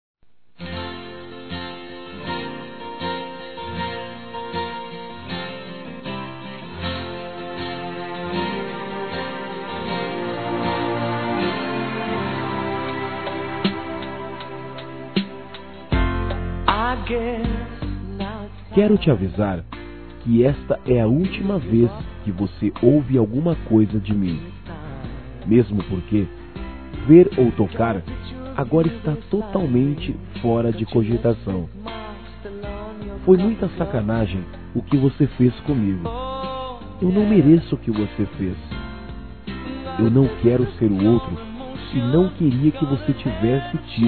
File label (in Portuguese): RECLAMANDO TRAIÇÃO, masc; Broncas